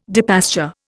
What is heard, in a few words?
"depasture", Indian